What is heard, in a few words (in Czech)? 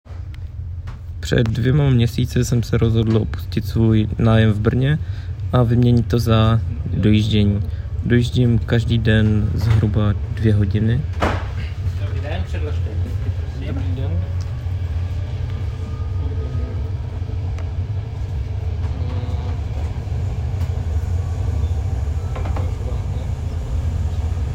reportazni situace